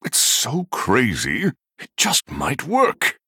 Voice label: Male Human Thinking